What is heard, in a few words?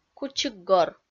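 "кучикгор", Komi, female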